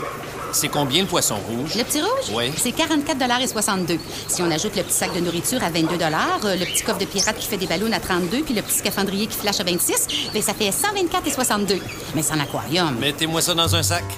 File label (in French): Voix caissière, efficace, souriante, débit rapide, PUB; PUB/Voix caissière, efficace, souriante, débit rapide; SSQ assurances; Poisson rouge